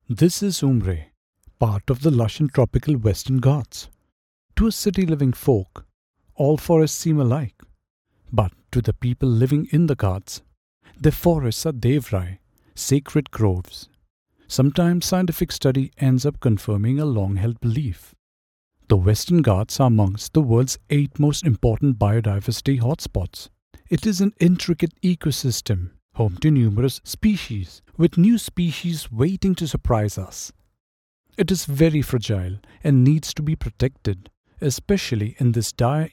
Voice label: documentary; CSR; DCB Bank